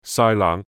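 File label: "晒冷" Cantonese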